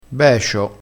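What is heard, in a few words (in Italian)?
"becio", vocabolario dialettale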